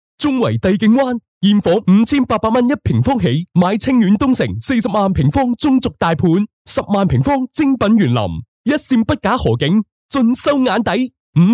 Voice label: 男 粤语 促销地产

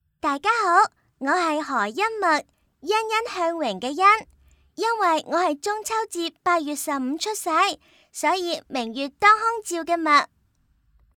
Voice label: 粤语女童

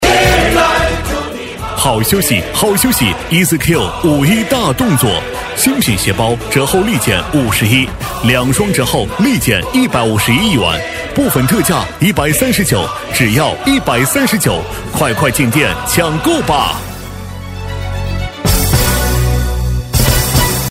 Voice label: 男, 促销 五一-配乐版

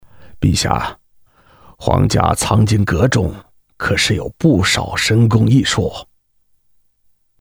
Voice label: 男, 角色 奸诈大臣

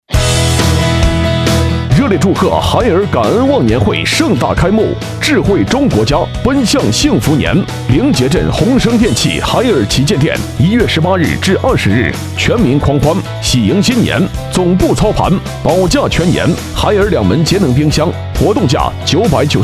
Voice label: B男 促销 家电; 海尔家电

